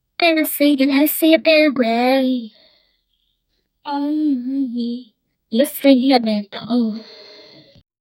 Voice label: sounded like a girl and, voice